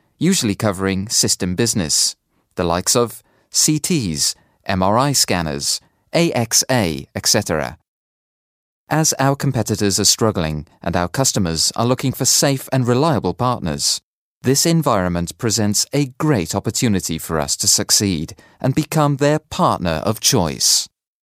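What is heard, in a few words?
British corporate info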